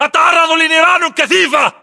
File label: inform suppressed generic, battle chatter, english